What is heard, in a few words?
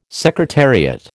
"secretariat", American